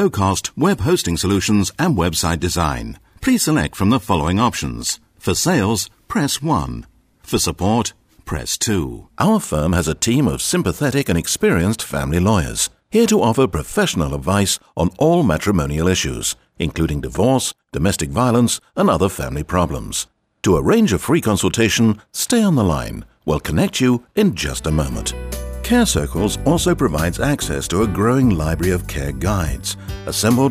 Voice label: ON HOLD